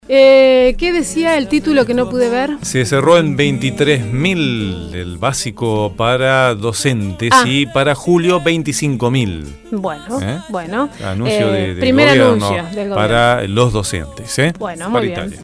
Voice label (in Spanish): informativo; paritaria nacional docente